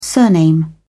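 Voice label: "surname", British English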